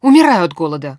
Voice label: idle, woman; Stalker Anomaly; characters voice human